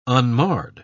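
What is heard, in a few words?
"unmarred", English, British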